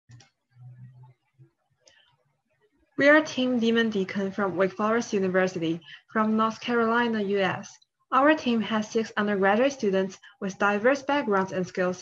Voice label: Voiceover; ClusterComp 2020